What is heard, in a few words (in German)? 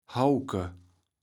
"Hauwke", Platt